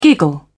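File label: "giggle", British English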